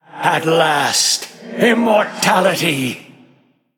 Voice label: Male Ethereal Play

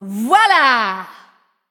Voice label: Female Nightborne Attack

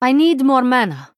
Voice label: female draenei, Error Need Mana

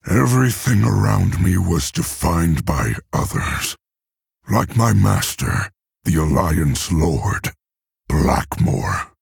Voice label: Male Orc; Thrall

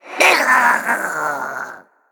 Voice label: Male Murloc Ghost Death

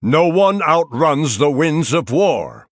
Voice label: Male Night Elf Threaten

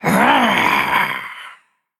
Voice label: Female Undead Play

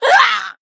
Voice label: Female Dwarf Death

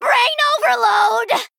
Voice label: Female Goblin Attack

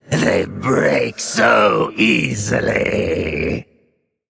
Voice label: Male Undead

